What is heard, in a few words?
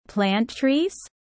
low; four seasons